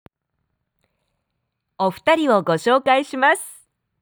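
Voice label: "ohutari wo gosyoukai simasu", Japanese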